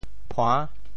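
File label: "phua~6", Teochew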